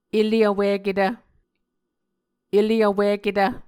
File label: "ele vwiketv", muscogee